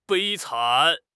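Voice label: "悲惨", Chinese; HSK6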